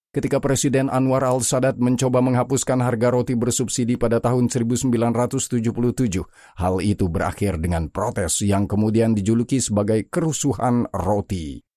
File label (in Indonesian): YouTube Narrator Pengisi Suara Pria; YouTubeナレーション